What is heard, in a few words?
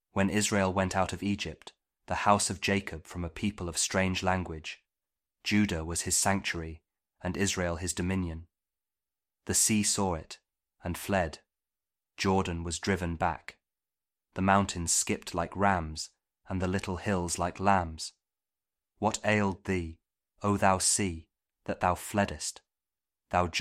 psalm kjv king james audio bible